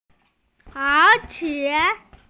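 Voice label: "moreover", Mandarin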